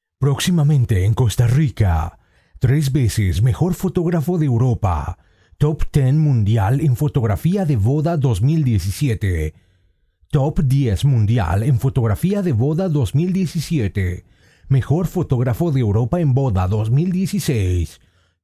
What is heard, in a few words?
SPANISH